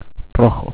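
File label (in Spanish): "rojo", Spanish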